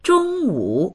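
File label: "zhong1wu3", Mandarin Chinese; 中午; 正午、昼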